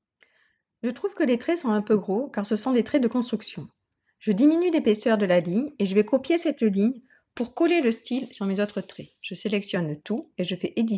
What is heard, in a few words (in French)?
Voix off